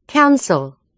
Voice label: "council", American English pronunciation